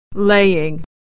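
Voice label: "laying", American English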